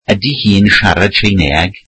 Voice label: welsh does she speak Chinese

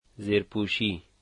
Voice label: underwear, Dari

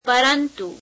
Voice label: gujarati but; પરંતુ [peremeteu]; Prepositions

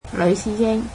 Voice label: Waiter, cantonese

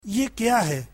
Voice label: What is this, urdu

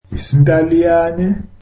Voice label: zulu Italian

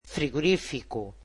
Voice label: "refrigerator", Portuguese

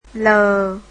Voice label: vietnamese alphabet l

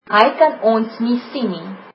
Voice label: he cannot see us, afrikaans

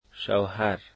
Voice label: husband, dari

